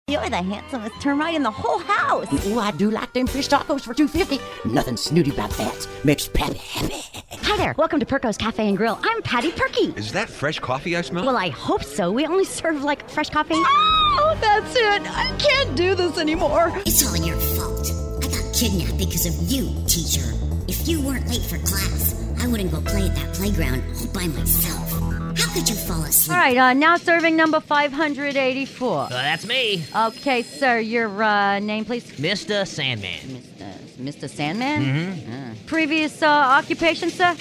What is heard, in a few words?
Character Audio